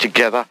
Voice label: LoFi Words, LoFi Vocals

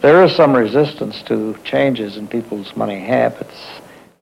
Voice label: LoFi Phrases, LoFi Vocals; Vengeance Samples

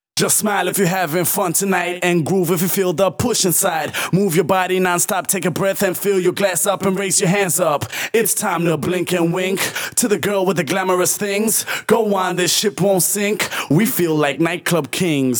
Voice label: Rap Vocals WET, 128 BPM; Vengeance Samples; Vocal-Hook Kits; Song Kit

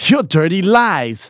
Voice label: Phrase Male Rap; Vengeance Samples

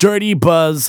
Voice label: phrase male rap; Vengeance Samples

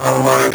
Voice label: Vocoder Words; Vengeance Samples

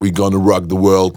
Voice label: Phrase Pitched; Vengeance Samples

